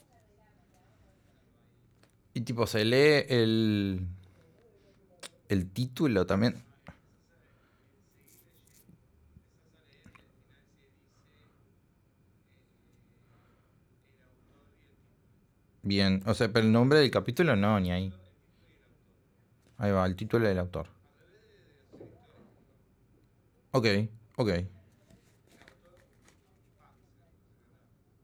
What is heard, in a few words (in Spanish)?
locucion